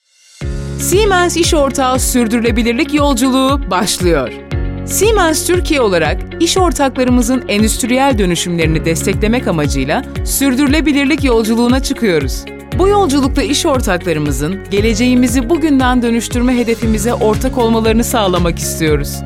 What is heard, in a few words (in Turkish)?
tanitim, tanitim filmi seslendirme